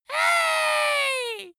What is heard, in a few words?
dwarf insulted "hey"